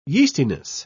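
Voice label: "yeastiness", British English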